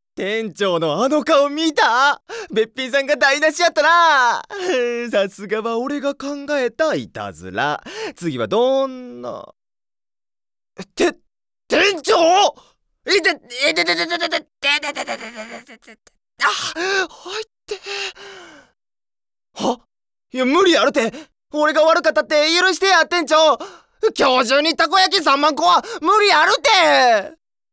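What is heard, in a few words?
Osaka dialect, Japanese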